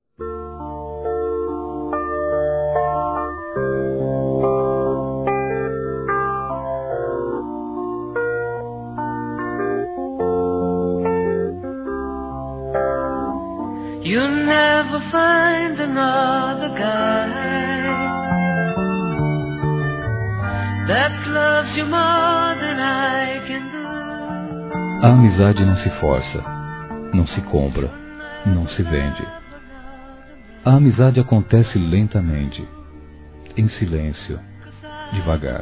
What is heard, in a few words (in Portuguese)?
Aniversário Amiga Masculino; Telemensagens 2017; Aniversários; Aniversário de Amigos